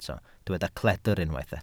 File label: "cledr", Welsh